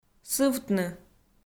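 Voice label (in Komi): "сывтны", Komi, female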